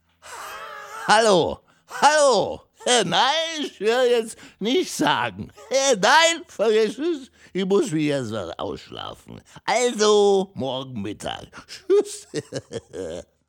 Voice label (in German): anrufbeantworter